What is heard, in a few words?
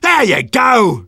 Sniper positive vocalization